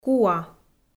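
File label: "куа", Komi, female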